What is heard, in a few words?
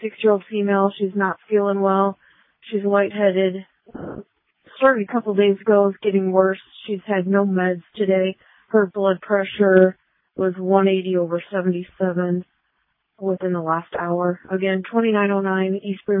Waverly Ambulance, dispatch